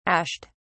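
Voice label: "ashed", English, British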